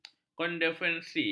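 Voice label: "konferensi", Malay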